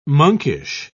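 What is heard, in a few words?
"monkish", English, British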